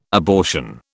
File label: "abortion", British